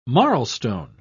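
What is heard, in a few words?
"marlstone", British English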